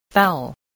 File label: "foul", English voice, spelling